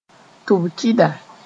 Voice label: "tʋb-kɩda", moore; deaf person